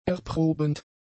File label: "erprobend", German; adjectives